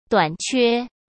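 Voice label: "短缺", Mandarin Chinese; chinese-flashcards; hsk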